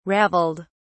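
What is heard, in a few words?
"raveled", British English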